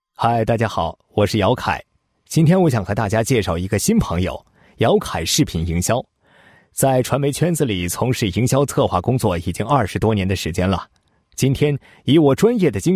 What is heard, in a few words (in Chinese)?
男 自白 广告片, 配音; 集团广告片策划; 兆凯集团广告片策划